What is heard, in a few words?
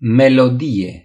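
"tune", Romanian; gcompris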